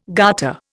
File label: "garter", Indian